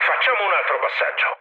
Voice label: bomb failure mood low, speech it; War Thunder

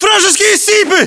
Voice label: threat infantry generic, english; localized english

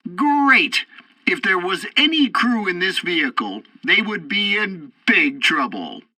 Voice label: tutorial tank arcade first target killed, english; War Thunder

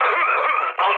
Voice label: damaged pilot mood med, speech pol; War Thunder

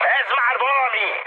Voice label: trick, mood high, speech hun; War Thunder

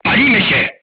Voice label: voice message fire in tank, polish

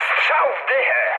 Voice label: bomb success mood med, speech pol; War Thunder

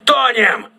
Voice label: voice message hull breach, crew ships russian

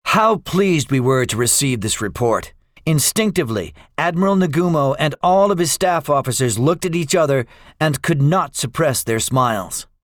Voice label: debriefing, english; second wave; War Thunder

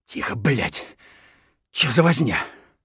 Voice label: silence; Escape from Tarkov; scav1

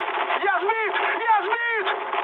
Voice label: aircraft destroyed, mood med, speech ru

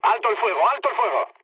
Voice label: player friendly fire, mood low, speech

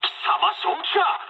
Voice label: player killed ally mood high, speech jp; War Thunder

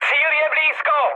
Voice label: near waypoint, speech cze, mood high; War Thunder

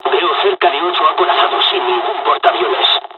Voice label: japanese first wave, dialogs spanish; War Thunder

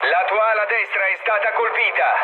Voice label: right wing mood low, speech it; War Thunder

